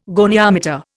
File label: "goniometer", Indian